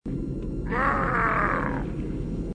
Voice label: blaaaaaam; dialogue; elites